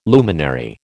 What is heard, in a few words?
"luminary", American